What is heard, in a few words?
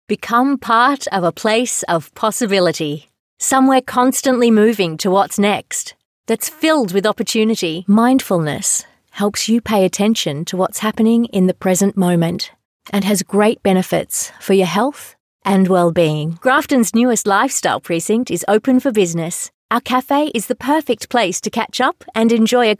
Soft Sell